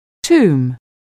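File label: "tomb", English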